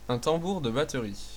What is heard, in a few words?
drum tomtom floor desc, fr; percussion; music; tuxpaint-stamps